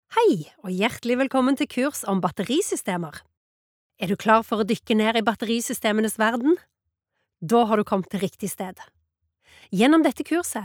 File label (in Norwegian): no e-laering, kvinne, norsk